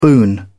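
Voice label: "boon", British English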